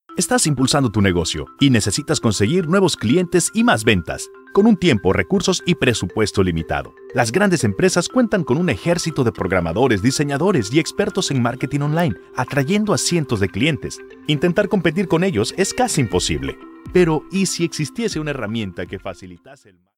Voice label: Spanish Latin